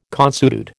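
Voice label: "consuetude", American English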